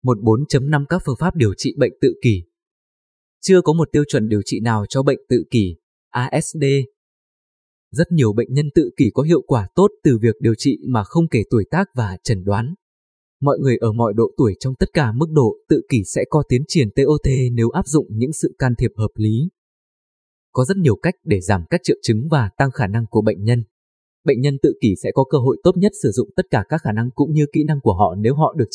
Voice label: Male